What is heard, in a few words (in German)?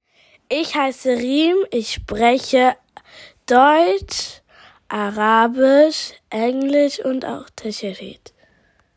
Tashelhit Vorstellung